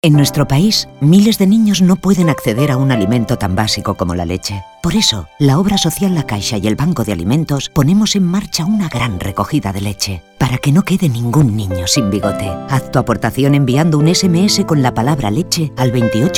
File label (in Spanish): radio; la caixa recogida leche